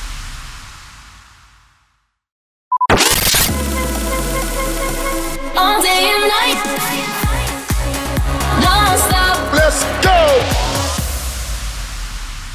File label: SWEEPER COMMERCIAL FREE